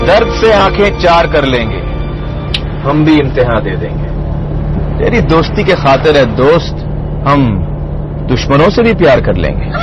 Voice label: Shayari Ringtone

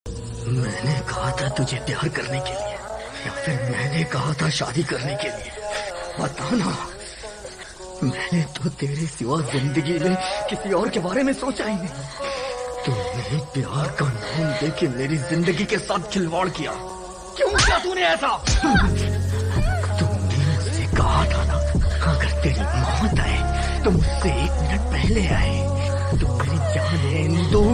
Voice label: Heart Broken Dialogue Ringtone

